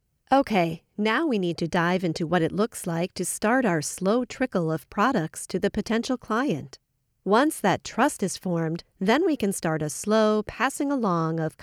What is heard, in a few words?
E learning Financial